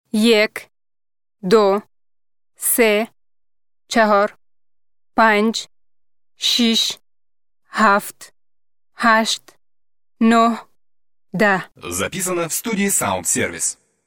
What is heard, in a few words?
cifri farsi